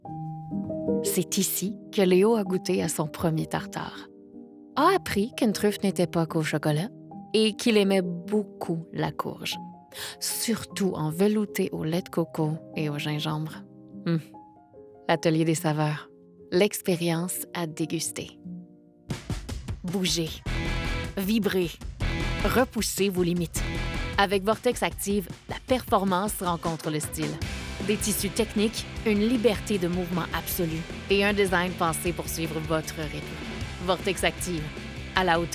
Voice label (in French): FR, voix